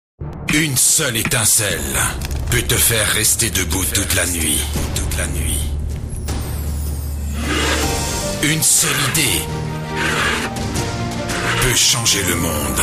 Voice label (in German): FR Werbung Dynamisch